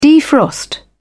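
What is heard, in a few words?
"defrost", British English, dictionary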